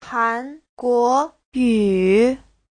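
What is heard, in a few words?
"hánguóyǔ", Mandarin Chinese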